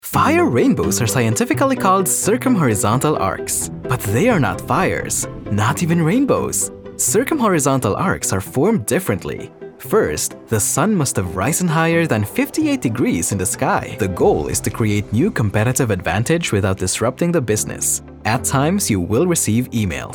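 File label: English Narration, Filipino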